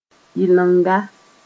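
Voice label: "yɩlenga", Mossi; multiple multiplication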